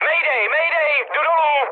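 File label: aircraft destroyed, Czech, mood high; War Thunder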